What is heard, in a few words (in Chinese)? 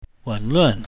"wen luan", Mandarin